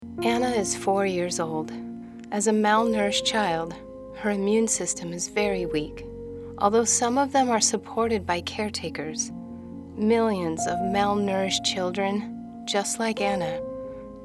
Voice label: Industrial Training